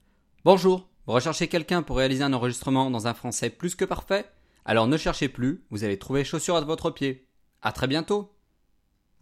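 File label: French, male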